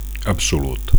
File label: "absolut", Catalan; 1. absolute; complete; supreme